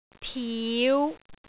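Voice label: "tiu5", Cantonese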